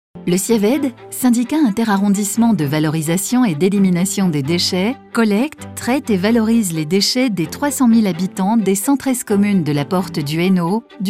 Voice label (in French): film institutionnel; siaved